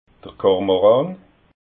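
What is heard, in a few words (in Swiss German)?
"kormoran", Alsatian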